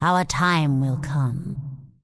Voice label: Undead Female Magic Farewell; NPC

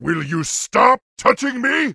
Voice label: Orc Male Guard NPC Pissed

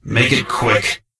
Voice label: Death Knight Male Low Greeting

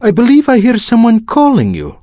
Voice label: Draenei Male Pissed

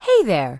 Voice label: Human Female Standard NPC Greeting

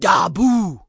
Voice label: Orc Female Standard NPC Farewell

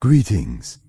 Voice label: Night Elf Male Standard Greeting

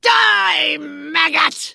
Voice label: Vrykul Female Warrior Pissed

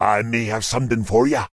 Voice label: Witch Doctor Pissed

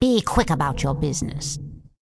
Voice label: Undead Female Magic NPC Vendor

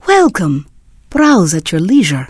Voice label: Draenei Female Military Vendor

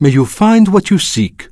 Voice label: Draenei Male Vendor

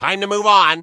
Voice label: Gnome Male Standard NPC Pissed

